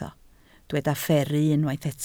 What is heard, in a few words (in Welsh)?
"fferru", Welsh